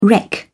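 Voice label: "wreck", English, British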